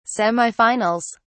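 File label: "semifinals", English, British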